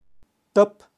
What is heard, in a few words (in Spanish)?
"tap" cangrejo, kaqchikel, pronunciacion de animales